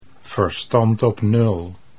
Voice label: verstand op nul, Dutch

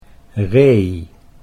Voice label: "ree", Dutch

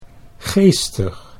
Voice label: "geestig", Dutch

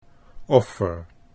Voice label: "offer", Dutch

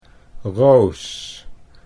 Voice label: "roos", Dutch

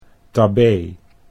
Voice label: "tabee", Dutch

